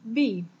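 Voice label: b filled desc, Greek; alphabets; lowercase; tuxpaint-stamps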